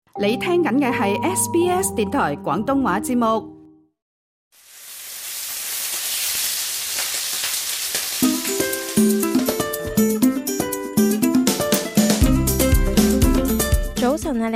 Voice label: cantonese; gourmet express